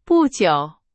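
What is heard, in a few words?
Chinese; hsk2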